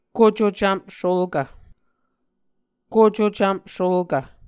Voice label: "kucocvmp sulkv", Muscogee

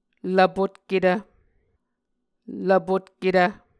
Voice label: "lvpotketv", Muscogee

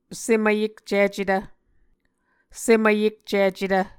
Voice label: "sem vyekcicetv", Muscogee; Mvskoke Words with Voicing Consonants; A Dictionary of Creek/Muskogee; SIL International

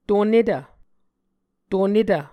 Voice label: "tonetv", Muscogee

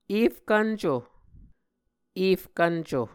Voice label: "efkvnco", Muscogee

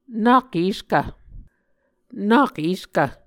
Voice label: "nak eskv", Muscogee

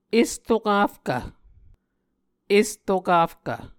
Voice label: "esrokafkv", Muscogee; Mvskoke Words with Voicing Consonants; Martin, Jack B., and Margaret McKane Mauldin. 2023. A Dictionary of Creek/Muskogee; SIL International

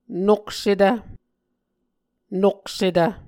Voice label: "noksetv", Muscogee

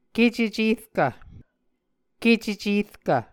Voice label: "kececerkv", Muscogee; Mvskoke Words with Voicing Consonants; Onvkv Ohhvyayices

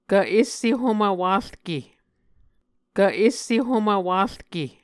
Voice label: "kv esse homv warke", Muscogee

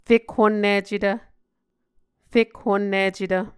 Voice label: "fekhonnicetv", muscogee

